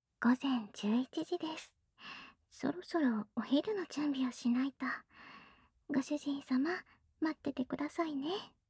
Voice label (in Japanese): 時報ボイス 午前11時です; 好感MAX従順奴隷メイド~甘トロ媚び媚び奉仕~